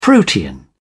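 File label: "protean", British English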